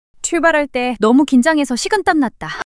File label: fluster; monologue